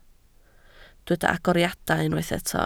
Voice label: "agoriadau", Welsh